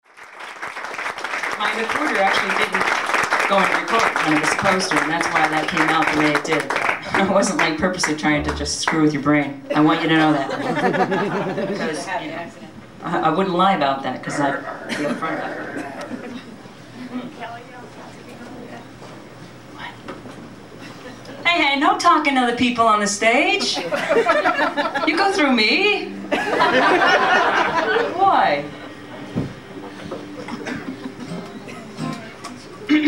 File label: Live Patter; Tin Angel; No Talking To Other People On The Stage